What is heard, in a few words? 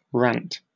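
"rant", British English; pronunciations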